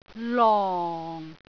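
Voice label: "long4", Cantonese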